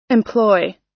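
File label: "employ", American English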